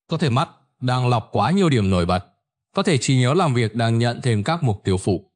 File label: narration; Vi Sao Mot Can Phong Lon Xon Co The Lam Ban Met Moi; StickerMan